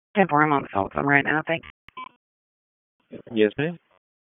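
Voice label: EMS DISP, radio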